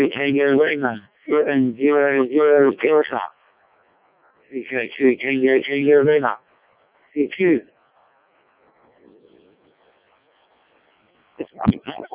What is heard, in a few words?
decoded speech, parrot